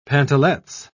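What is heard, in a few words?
"pantalets", British English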